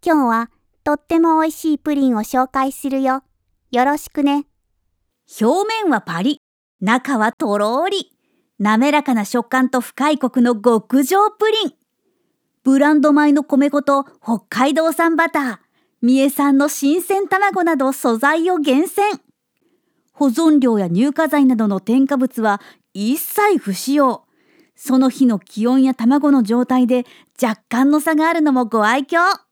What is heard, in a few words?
character cheerful food